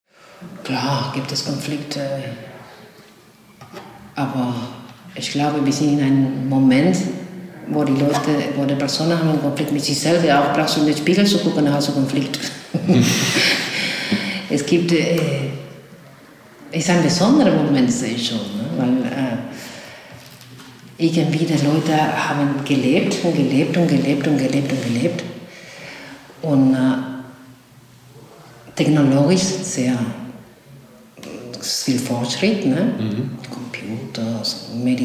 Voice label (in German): spanisch